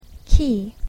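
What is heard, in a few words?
"key", English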